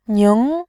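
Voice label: "ning", Mandarin, tone 2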